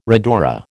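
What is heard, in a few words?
"rhodora", American English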